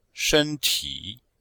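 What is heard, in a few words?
"shen1 ti3", Mandarin Chinese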